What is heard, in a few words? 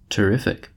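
"terrific", American English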